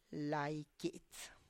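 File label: "lai kits", Tlacochahuaya Zapotec; molar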